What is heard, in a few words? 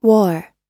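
"war", American English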